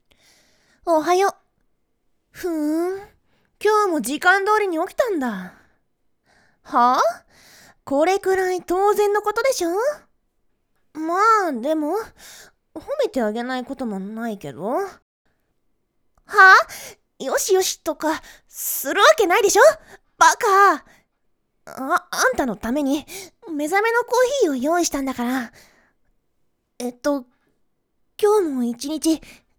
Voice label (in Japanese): ツンデレ少女と一緒に起きる朝; リニールハーフアニバーサリー記念おはようボイス演じ分け8+2種